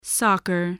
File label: "soccer", English voice